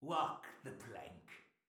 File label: pirate voice; netent